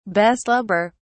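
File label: "beslubber", British English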